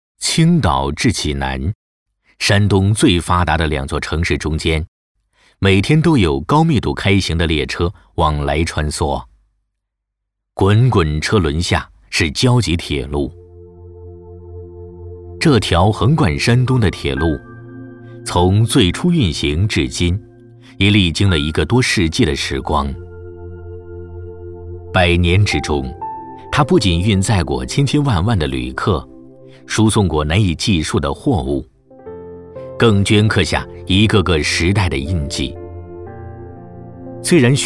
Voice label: 男 纪录片; 胶济铁路

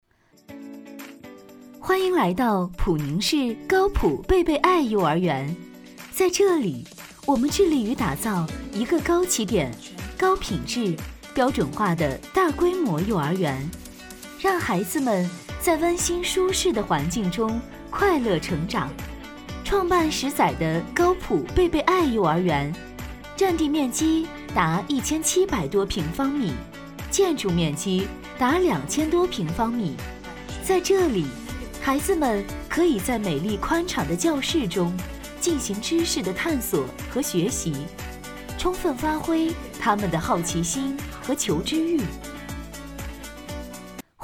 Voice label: 女 学校 幼儿园; 高埔贝贝爱幼儿园

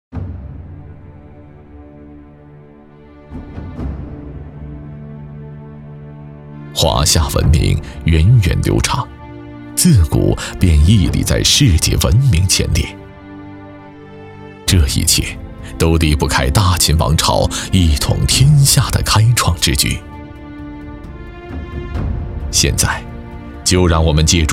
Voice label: 男, 纪录片; 飞越大秦

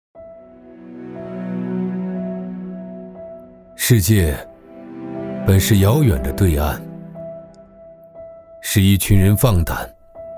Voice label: 男, 高端 质感; 遥远的对岸

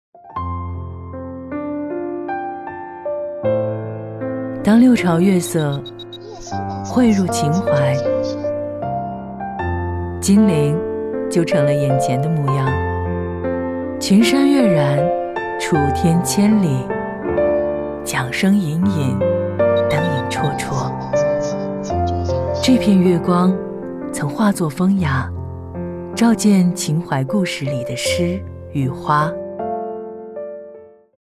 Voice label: 女 旁白 中秋; 香港置地南京公司

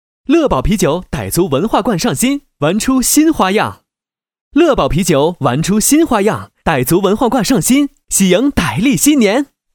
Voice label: 男 广告 欢快活力

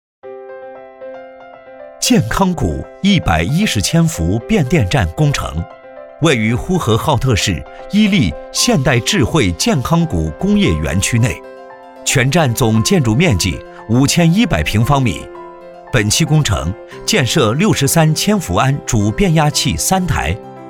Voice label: 男, 汇报; 健康谷110千伏变电站工程

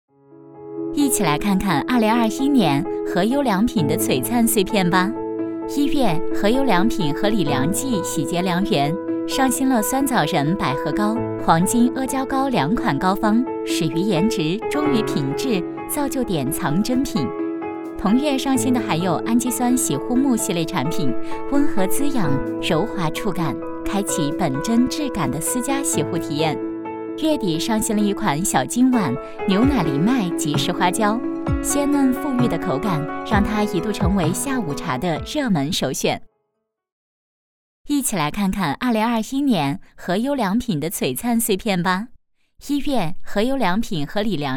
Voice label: 女 专题; 2021年回顾短片-和优良品

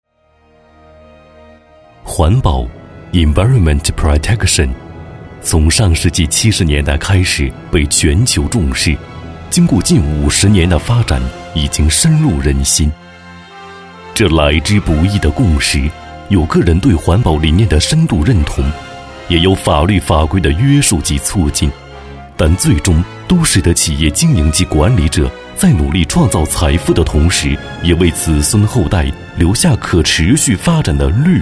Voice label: 男, 专题; 双林环保

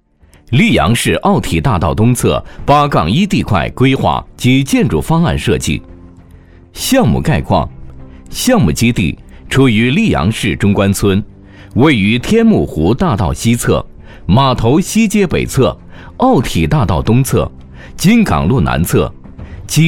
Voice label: 男, 建筑说明; 奥体

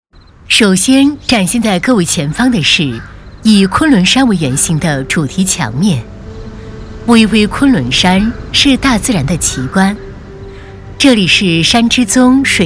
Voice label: 女 景区大气讲解 语音

